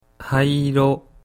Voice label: "haiiro", Japanese